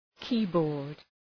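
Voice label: "keyboard", English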